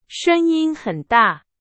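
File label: Chinese, example sentence; hsk2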